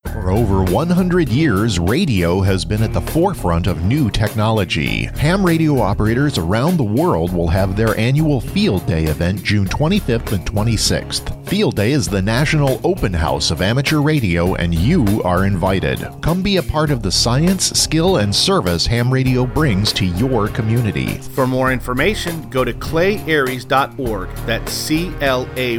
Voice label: PSA; ARRL; FD 2016; Clay ARES; Field Day